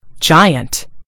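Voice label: "giant", British English; gcompris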